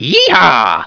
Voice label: "yehaw", miner; wanted